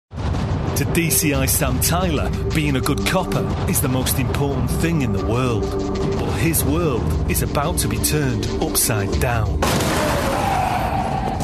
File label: tv promo; life on mars